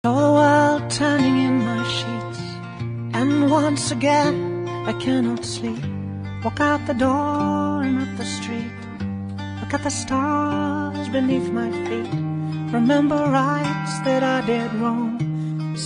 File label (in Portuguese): nora, Voz Masculina; Aniversário de Nora; Telemensagens para todas as ocasiões